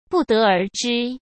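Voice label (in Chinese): "不得而知", Mandarin Chinese; chinese-flashcards; hsk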